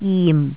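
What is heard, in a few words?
"jim4", Jyutping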